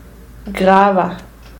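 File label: "grava", Romansh Sursilvan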